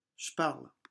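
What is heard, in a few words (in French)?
"je parle", French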